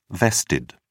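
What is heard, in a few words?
"vested", British English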